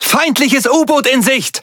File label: Speech Detection Enemy Sub, DE; World Of Warships